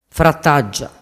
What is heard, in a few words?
"frattaggia", dialettu